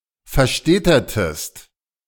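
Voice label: "verstädtertest", German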